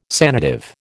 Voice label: "sanative", American

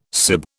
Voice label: "sib", British

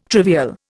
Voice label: "trivial", Indian

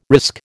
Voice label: "risk", American

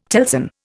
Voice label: "telson", Indian

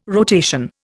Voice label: "rotation", Indian

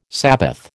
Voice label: "sabbath", American

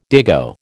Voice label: "dago", American

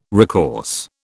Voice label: "recourse", British

